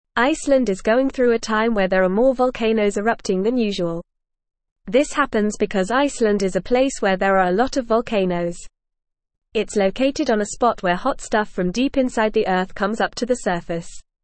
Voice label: English FAST Reading; English Newsroom; Icelands Volcanic Activity Scientists Predict Decad